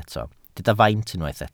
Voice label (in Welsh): "faint", Welsh